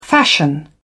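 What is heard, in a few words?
"fashion", British English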